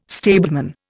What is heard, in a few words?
"stableman", Indian